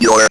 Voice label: ROB VOX